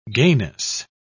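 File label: "gayness", British English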